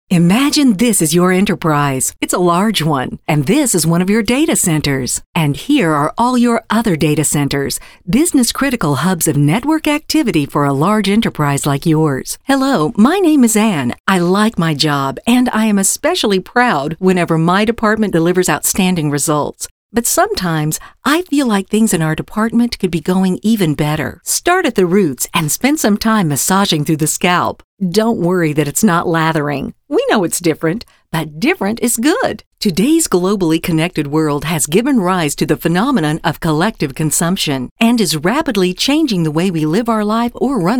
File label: NARRATION MARKETING